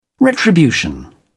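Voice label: "retribution", British English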